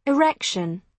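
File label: "erection", British English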